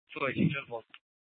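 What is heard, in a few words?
Police Department North Dispatch, scanner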